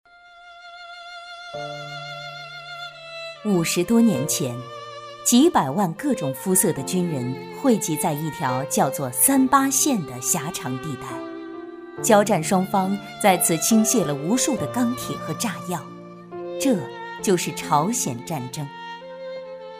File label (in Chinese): 女 专题 深情讲述专题